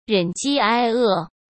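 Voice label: "忍饥挨饿", Mandarin Chinese; chinese-flashcards; hsk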